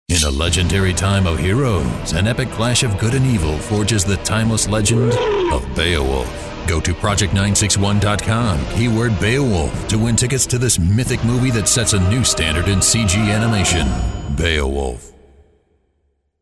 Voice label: MOVIE TRAILER; commercial voiceover

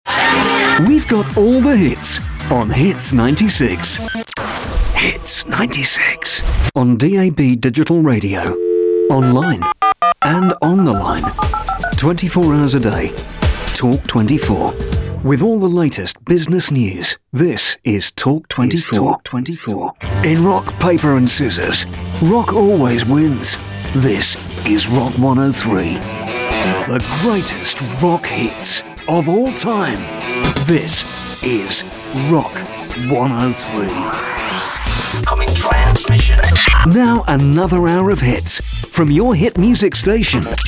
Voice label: Imaging Male British

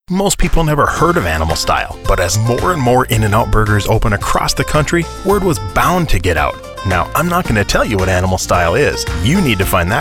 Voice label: Commercial Male; Commercial Voiceover, TV and Radio

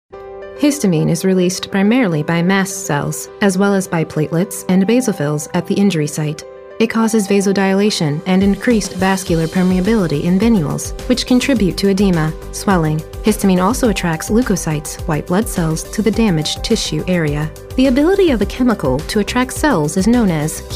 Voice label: eLearning Medical Female

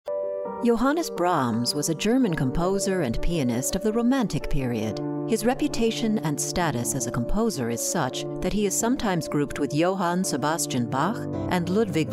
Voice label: Narration Female; commercial voiceover

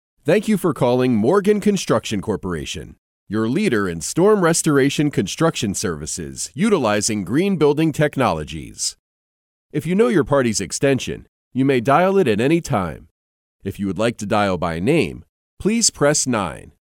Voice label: On Hold Male; Phone Hold/IVR

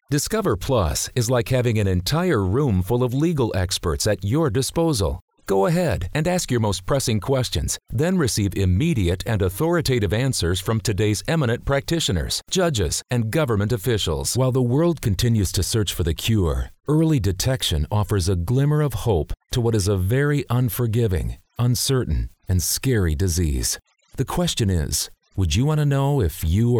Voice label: Narration Male, Corporate, Documentary

